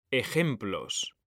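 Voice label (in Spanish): "ejemplos", Spanish; mekanta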